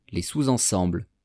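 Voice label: "les sous ensembles", French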